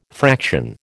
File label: "fraction", American